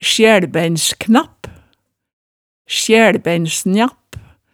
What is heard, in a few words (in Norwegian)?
"sjælbeinsknapp", hemsedalsmaalet